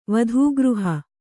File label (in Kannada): "ವಧೂಗೃಹ", Kannada